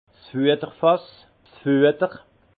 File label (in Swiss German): "fueterfass", alsace